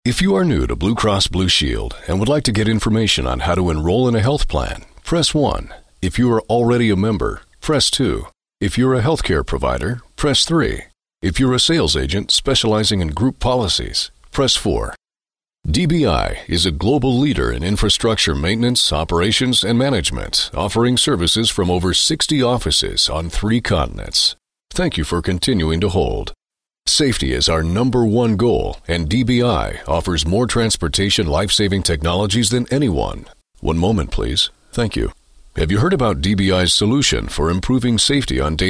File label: phone